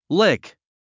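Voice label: "lick", English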